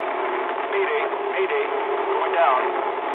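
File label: aircraft destroyed mood low, speech English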